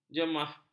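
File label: "jemah", Malay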